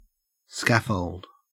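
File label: "scaffold", Australian English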